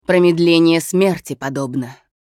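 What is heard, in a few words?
Hero Idle Russian, Женщина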